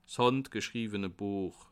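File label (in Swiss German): "handgschriwene", Bas Rhin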